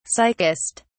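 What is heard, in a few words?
"sickest", British English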